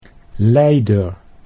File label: "leider", Dutch